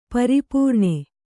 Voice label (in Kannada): "ಪರಿಪೂರ್ಣೆ", Kannada